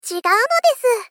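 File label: Moe, Deny, "Chigau nodesu", Japanese female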